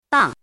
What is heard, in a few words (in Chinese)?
"dang5", 汉字语音; chinese-voice